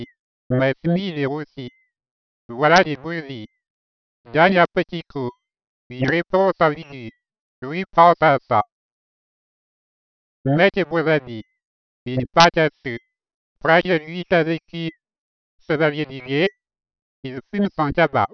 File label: synthesized sentences, french synthesis